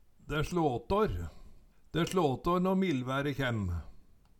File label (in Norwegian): "de slatar", Numedalsmål; Eksempel på bruk De slåtår nå millvære kjem